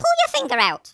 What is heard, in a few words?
boring, Nagging Wife